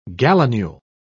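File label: "gallinule", British English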